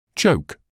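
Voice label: "choke", British English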